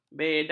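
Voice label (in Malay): "bedah", Malay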